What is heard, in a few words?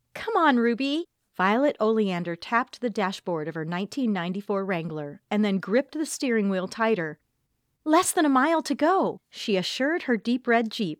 Audiobook Fiction